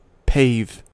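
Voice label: "pave", American English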